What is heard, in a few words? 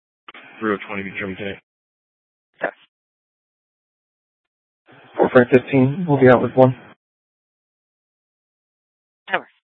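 Police Department North Dispatch, scanner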